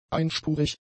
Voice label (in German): "einspurig", german; adjectives